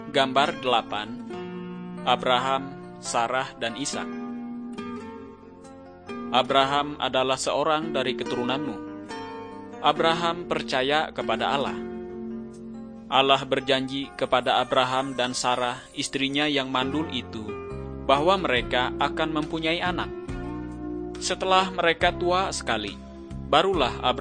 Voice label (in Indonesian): kabar baik good news bahasa indonesia